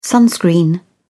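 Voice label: "sunscreen", English, uk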